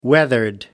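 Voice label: "weathered", British English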